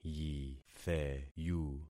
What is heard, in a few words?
"yitheyu", concat syllables; Affixing experiment